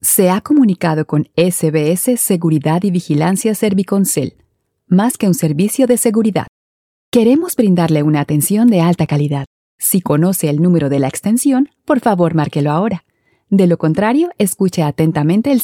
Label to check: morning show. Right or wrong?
Wrong